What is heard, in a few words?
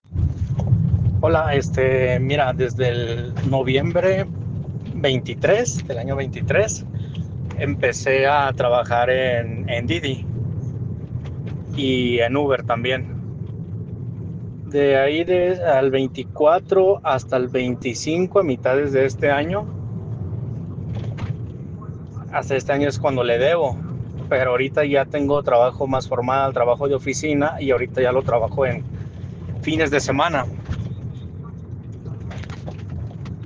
whatsapp ptt